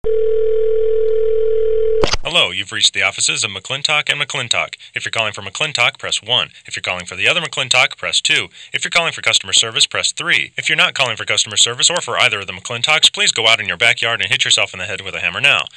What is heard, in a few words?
Phone Message; You Don't Know Jack